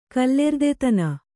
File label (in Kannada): "ಕಲ್ಲೆರ್ದೆತನ", Kannada; kallerdetana; noun; ruthlessness; mercilessness; heartlessness.